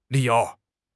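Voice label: "li you", Chinese; 汉语口语新时代-初级下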